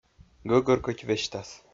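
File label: "гӧгӧр кодь весьтас", Komi-Zyrian, male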